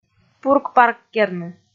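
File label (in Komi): "пурк-парккерны", Komi, female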